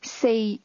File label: "four", Cantonese